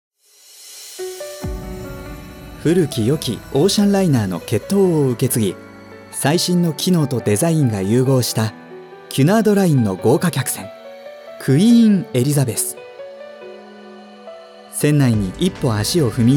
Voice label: japanese male